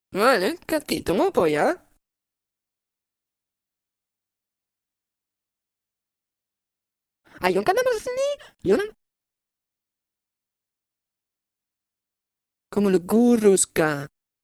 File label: talk Casual; Singles Flirt Up Your Life